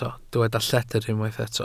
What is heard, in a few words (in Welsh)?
"lledr", Welsh